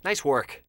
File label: "nice work", voices